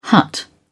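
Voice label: "hut", British English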